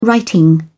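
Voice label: "writing", English, British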